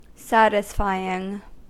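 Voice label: "satisfying", American English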